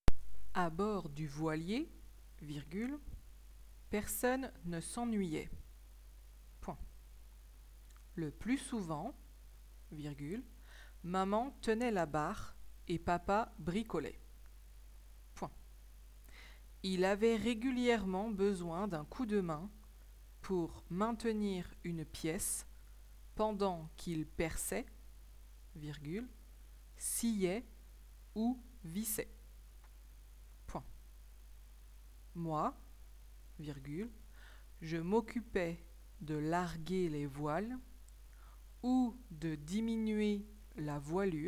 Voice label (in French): dictee